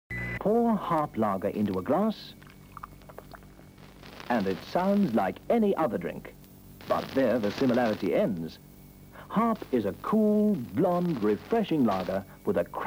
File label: Harp Larger advert